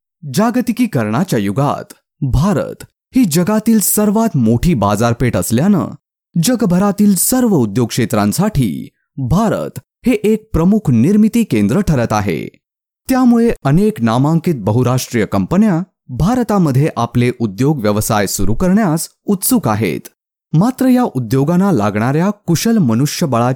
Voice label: Marathi Corporate